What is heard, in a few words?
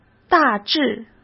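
"大致", Mandarin Chinese; chinese flashcards; hsk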